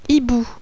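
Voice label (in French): "hibou", French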